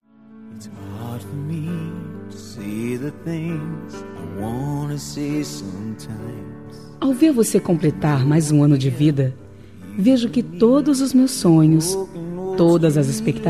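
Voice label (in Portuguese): Aniversário de Neto, female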